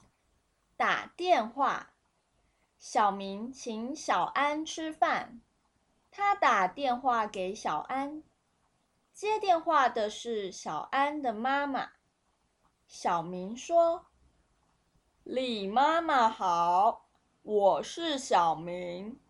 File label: phonecall